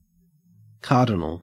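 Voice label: "cardinal" English, Australian accent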